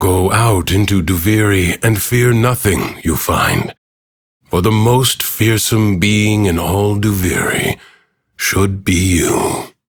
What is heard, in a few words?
Ambient Bark Fear, en; Warframe; Duviri; Teshin